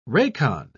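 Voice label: "racon", British English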